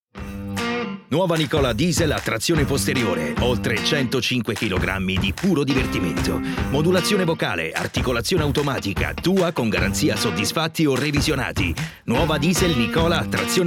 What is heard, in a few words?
italian automotive